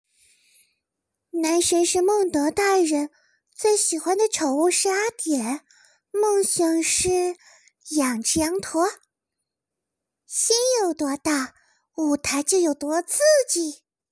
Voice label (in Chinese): 萝莉音; 蔡文姬